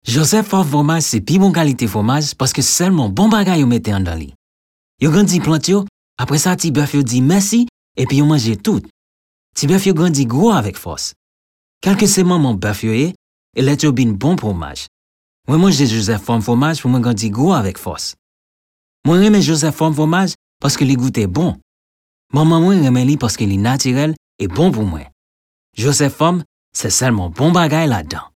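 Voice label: Haitian, commercial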